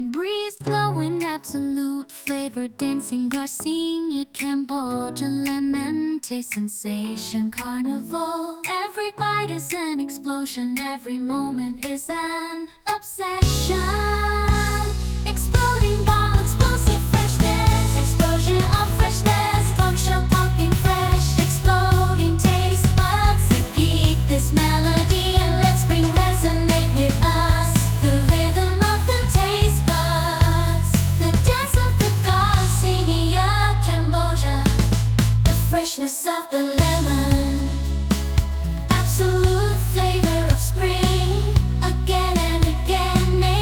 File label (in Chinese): 英文版 女; ai音频